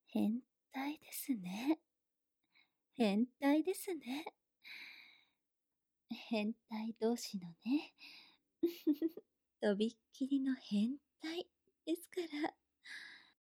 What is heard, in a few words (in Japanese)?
変態ボイス